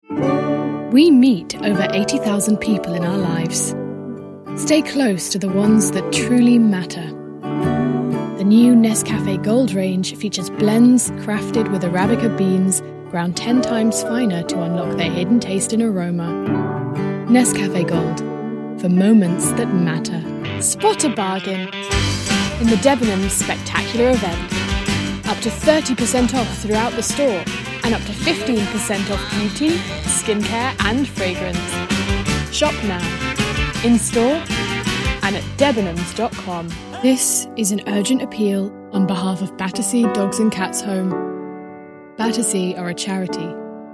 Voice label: Mini Commercial Reel